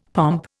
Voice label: "pomp", Indian; Dictionary

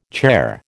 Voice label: "charr", American

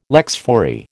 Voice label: "lex fori", American

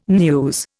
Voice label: "news", Indian

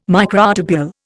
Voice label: "microtubule", Indian

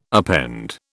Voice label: "append", British

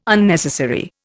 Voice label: "Unnecessary", Indian

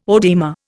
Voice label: "oedema", Indian

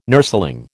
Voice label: "nurseling", American

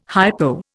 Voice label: "hypo", Indian

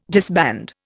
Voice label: "disband", Indian

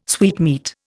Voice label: "sweetmeat", Indian

